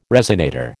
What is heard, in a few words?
"resonator", American